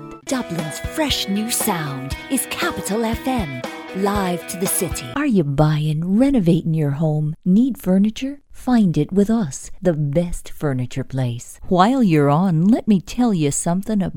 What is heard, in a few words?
Irish